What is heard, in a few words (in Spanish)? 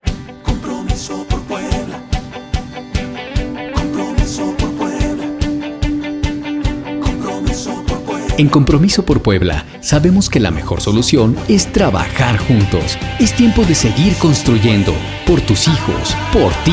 locución para spot; compromiso por puebla